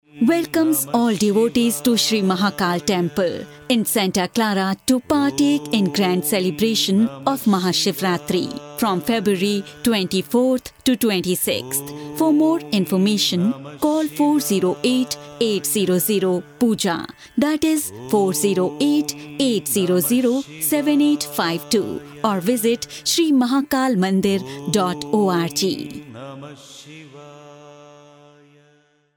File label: female indian voice over talent upbeat